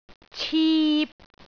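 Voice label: "cip3", Cantonese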